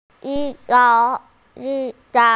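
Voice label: "qi4 qiu2", Mandarin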